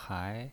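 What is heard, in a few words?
"hai", Mandarin Chinese, second tone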